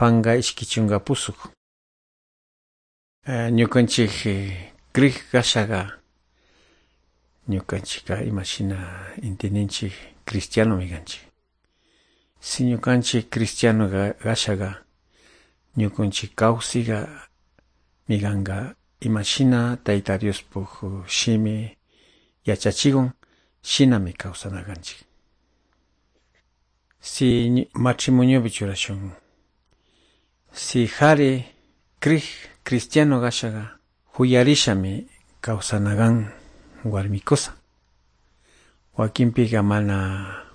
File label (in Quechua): good news quichua de calderon